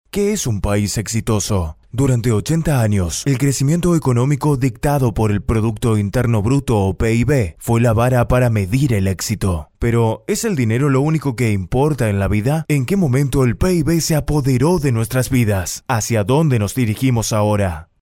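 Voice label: Spanish Americas, male, voice over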